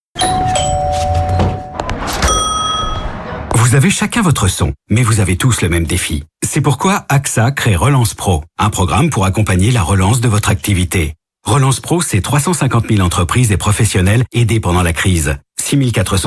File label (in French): Pub Radio Posé rassurant informatif; AXA